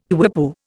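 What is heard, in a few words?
"quipu", Indian English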